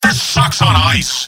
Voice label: scout jeers; mvm

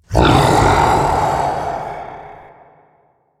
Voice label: hurt; brainrot; italian brainrot 3; nihilanth

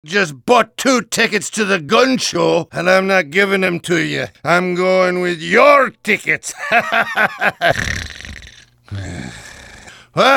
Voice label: gibberish; demoman

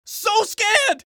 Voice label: scared

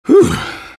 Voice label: eng taunt head end; vo; taunts; tf2; engy

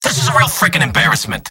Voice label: scout jeers; mvm

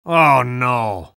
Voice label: spy negative vocalization; tf2

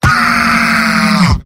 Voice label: jeers; demoman; mvm

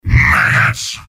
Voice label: soldier taunts; tf2; garrysmod; mvm

